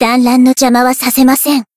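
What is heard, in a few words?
Voice Japanese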